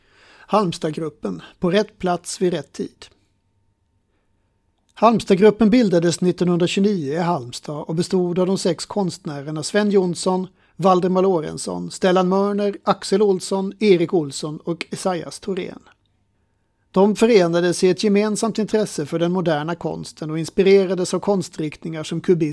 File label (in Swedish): Audioguide introtext; Halmstadgruppen